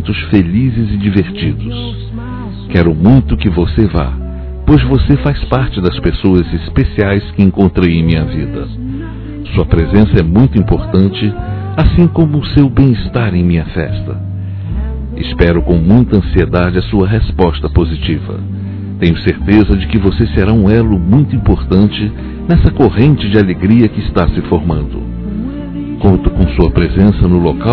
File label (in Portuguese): Convite para Aniversário Masculino; Telemensagens; Convites e Agradecimentos; Telemensagens 2017